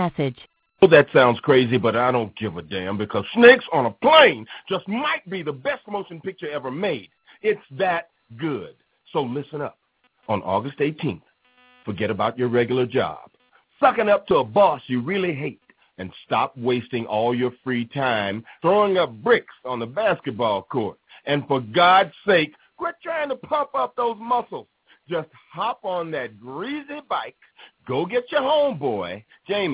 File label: voicemail; Snakes On A Plane